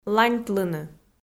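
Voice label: "ланьтлыны" Komi, female; kpv audio dict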